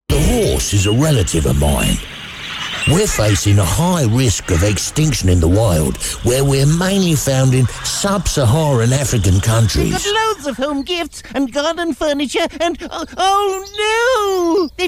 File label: Character Commericals